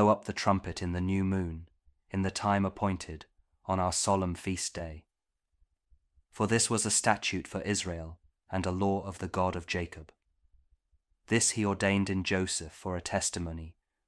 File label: psalm kjv king james audio bible